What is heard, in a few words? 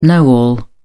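"know-all", English, British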